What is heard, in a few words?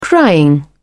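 "crying", British English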